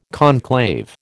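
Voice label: "conclave", American English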